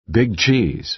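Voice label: "big cheese", American English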